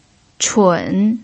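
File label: "chun" third tone, Mandarin Chinese pinyin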